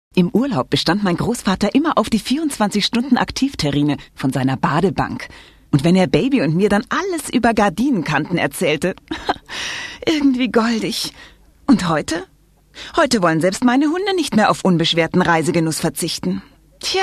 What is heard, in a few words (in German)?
Werbung; Badebank